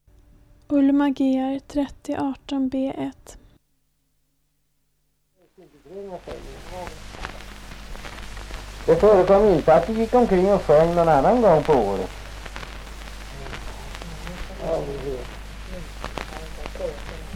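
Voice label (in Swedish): Östra Ryd Östergötland, Svenska sagor, dialekter; Folkminnen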